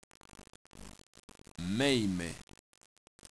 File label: "maime", Occitan